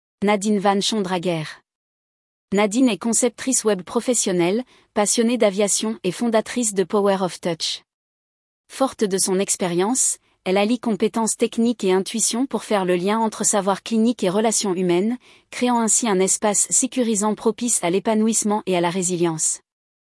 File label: text to voice, fr